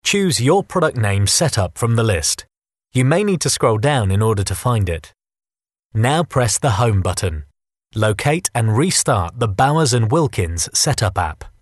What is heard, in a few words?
e learning